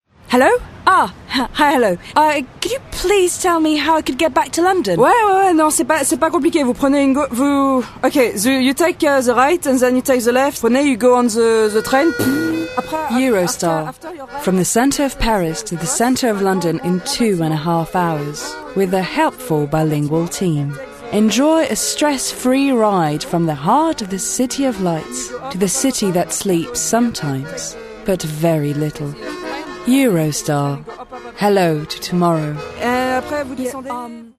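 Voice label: French English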